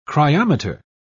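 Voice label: "cryometer", British English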